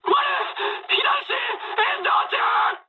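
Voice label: on fire mood med, speech japanese; War Thunder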